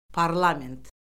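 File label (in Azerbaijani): "parlament", Azerbaijani; Orfoqrafiya Lüğəti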